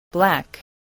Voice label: "black", spelling

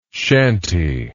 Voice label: "chanty", English

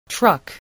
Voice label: "truck", voice

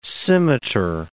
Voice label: "scimitar", English

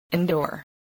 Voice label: "indoor", spelling

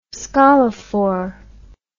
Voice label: "scolophore", English

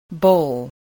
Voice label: "bowl", English

